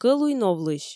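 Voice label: "носильщик", Komi, female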